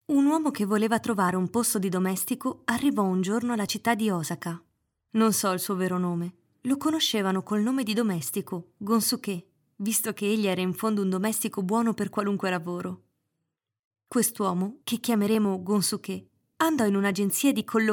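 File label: Italian female Corporate; voice over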